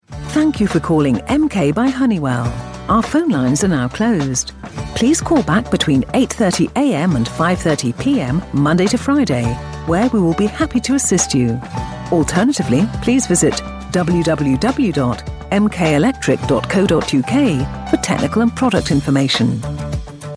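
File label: telephone, British English RP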